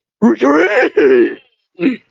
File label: voice message